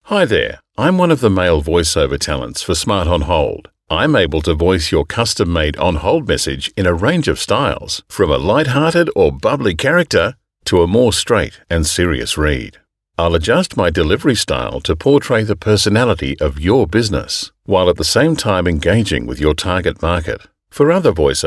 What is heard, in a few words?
MALE